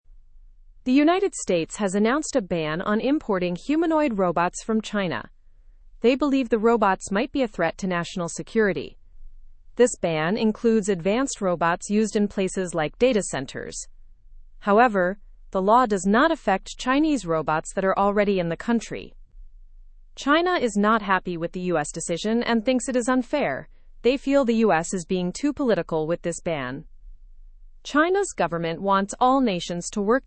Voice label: learn english through news lower intermediate fast; us bans new chinese humanoid robots